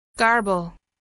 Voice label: garble